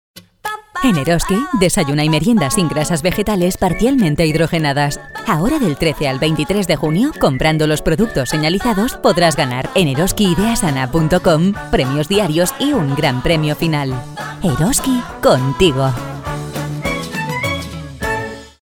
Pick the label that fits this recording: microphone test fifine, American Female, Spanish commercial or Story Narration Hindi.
Spanish commercial